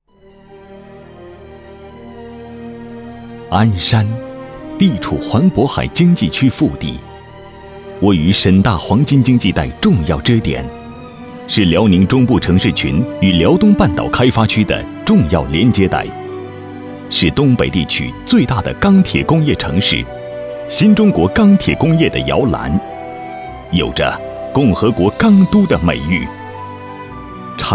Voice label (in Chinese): 男 专题 解说词 大气